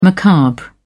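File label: "macabre", English, British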